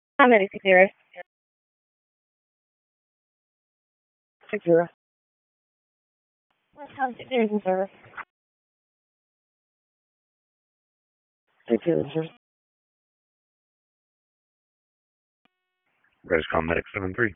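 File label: EMS DISP, radio; wake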